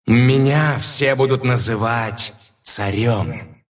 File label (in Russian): levelup ru; Monkey; dota2